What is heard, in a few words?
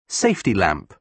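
"safety lamp", British English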